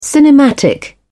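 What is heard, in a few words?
"cinematic", British English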